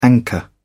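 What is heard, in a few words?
"anchor", English, British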